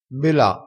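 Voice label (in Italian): "bela", vocabolario dialettale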